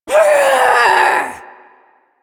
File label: Ability Throw, Barks; Warframe; Corpus Lich; Persona D